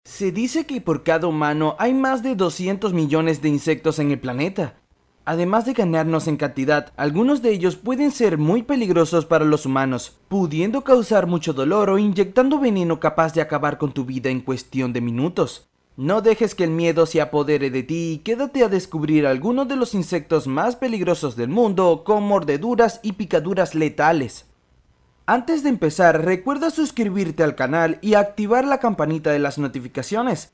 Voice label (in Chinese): 西班牙男声